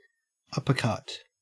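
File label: "uppercut", Australian English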